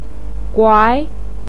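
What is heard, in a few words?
"guai" second tone, Mandarin Chinese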